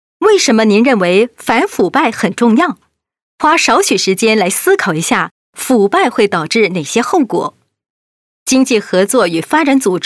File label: Mandarin Corporate, China Female